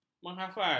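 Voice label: "menghafal", Malay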